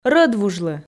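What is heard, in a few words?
"рӧдвужлы" Komi, female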